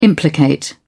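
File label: "implicate", British English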